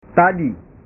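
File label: "tadi", Indonesian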